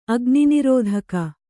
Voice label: "ಅಗ್ನಿನಿರೋಧಕ", kannada

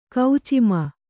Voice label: "ಕೌಚಿಮ", Kannada

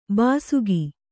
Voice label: "ಬೇಸಿಗೆ", Kannada

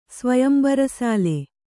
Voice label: "ಸ್ವಯಂಬರಸಾಲೆ", kannada

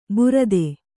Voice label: "ಬುರದೆ", Kannada

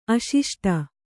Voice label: "ಅಶಿಷ್ಟ", Kannada

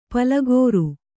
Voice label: "ಪಲಗೋರು", kannada; palagōru; Noun

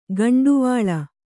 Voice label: "ಗುಂಟವಲೆ", kannada

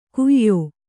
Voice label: "ಕುಯ್ಯೋ", Kannada

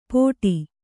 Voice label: "ಪೋಟಿ", Kannada

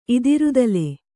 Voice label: "ಇದಿರುದಲೆ", Kannada

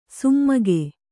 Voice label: "ಸುಮ್ಮಗೆ", Kannada

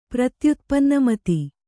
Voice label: "ಪ್ರತ್ಯುತ್ಪನ್ನಮತಿ", Kannada

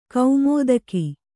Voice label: "ಕುಮುದಿಕೆ", Kannada

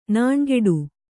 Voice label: "ನೊಣಗೇಡಿ", Kannada

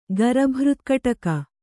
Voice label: "ಗರಭೃತ್ಕಟಕ", Kannada

